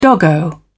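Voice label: "doggo", British English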